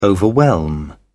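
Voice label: "overwhelm", English, British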